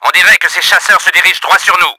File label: FIGHTERS APPROACHING, french; Battle Engine Aquila; XBOX